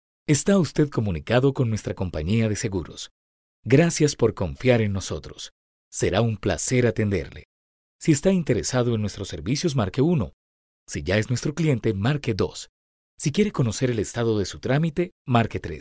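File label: Spanish Latin American IVR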